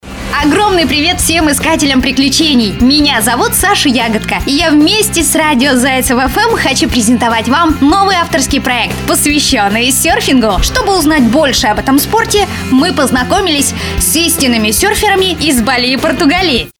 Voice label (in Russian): Ru